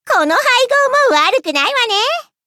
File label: levelup ja; cookie run